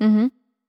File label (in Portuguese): Confirmaçao neutra